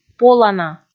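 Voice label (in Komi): "полана", Komi, female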